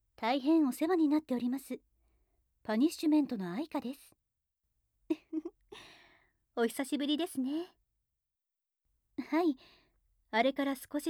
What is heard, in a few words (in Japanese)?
会話, 電話; カップル破局代行サービス 株式会社パニッシュメント; アイカと彼女の電話